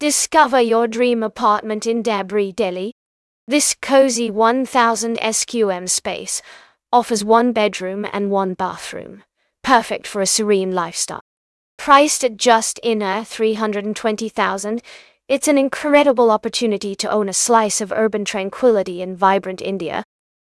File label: narration audio